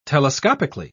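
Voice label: "telescopically", British English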